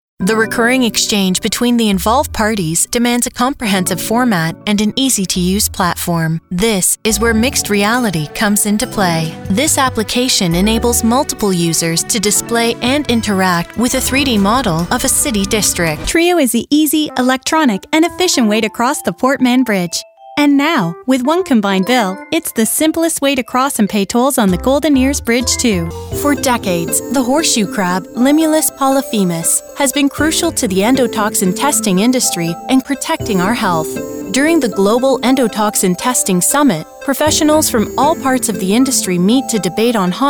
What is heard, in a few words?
Explainer Narration